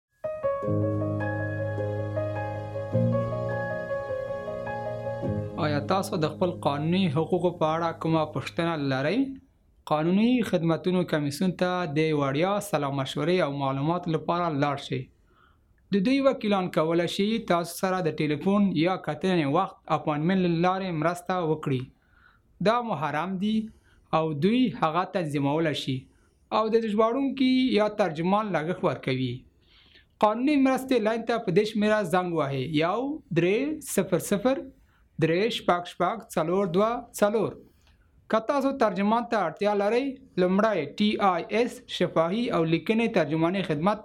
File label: Pashto